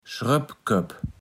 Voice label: "Schröppköpp", platt

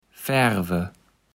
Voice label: "färwe", kaevels platt

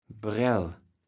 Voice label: "Brell", kaevels platt

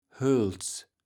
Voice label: "Höls", kaevels platt

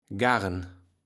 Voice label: "Garen", Platt

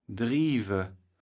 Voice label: "drieve", kaevels platt

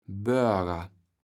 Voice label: "Boerger", kaevels platt

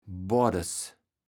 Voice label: "Bordes", kaevels platt

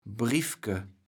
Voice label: "Brieffke", kaevels platt

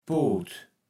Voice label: "Poot", Platt

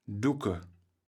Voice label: "ducke", kaevels platt

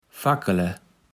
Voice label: "fakkele", kaevels platt

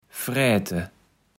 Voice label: "fräte", kaevels platt

